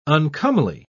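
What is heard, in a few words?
"uncomely", British English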